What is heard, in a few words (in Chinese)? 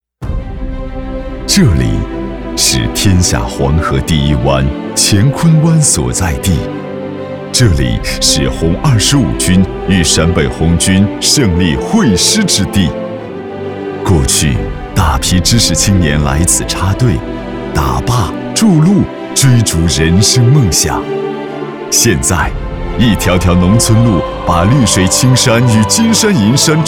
大气力度, 男; 延川县